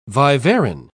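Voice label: "viverrine", British English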